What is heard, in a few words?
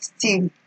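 "still", Nigerian Pidgin, female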